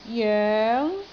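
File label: "joeng2", Cantonese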